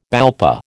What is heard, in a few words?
"BALPA", American